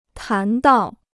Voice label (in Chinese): "谈到", Chinese